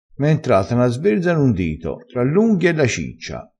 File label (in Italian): "sverza", vocabolario dialettale